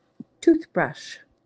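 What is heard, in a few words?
"toothbrush", English; useful words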